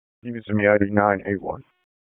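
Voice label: Raleigh Police Southwest District Dispatch, p25; wake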